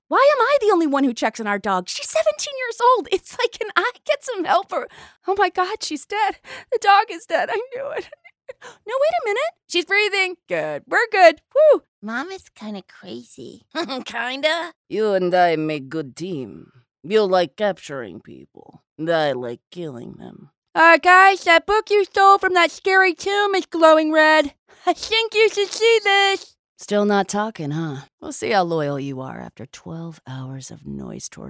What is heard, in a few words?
women animation